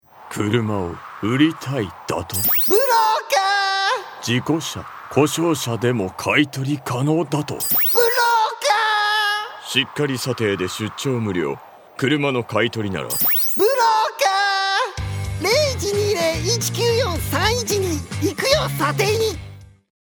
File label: radio cm